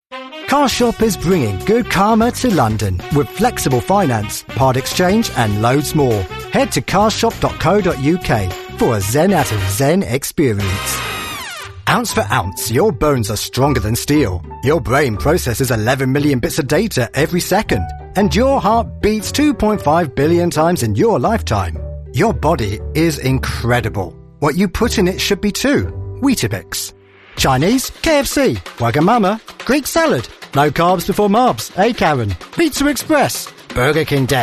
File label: english british commercial; Thailand; British voice actor